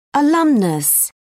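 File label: "alumnus", British English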